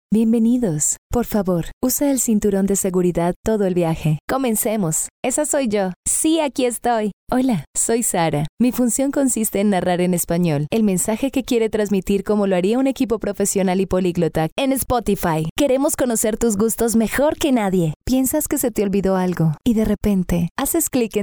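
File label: Spanish LATAM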